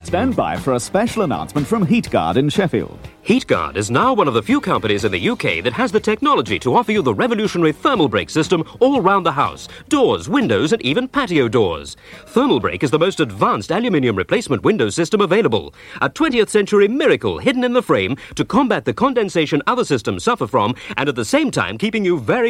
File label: Radio Advert; Radio Hallam; Heatguard